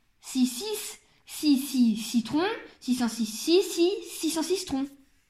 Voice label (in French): Virelangue